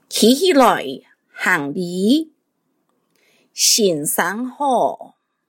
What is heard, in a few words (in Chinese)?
客語 課室生活用語 四縣腔